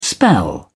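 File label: "spell", English, British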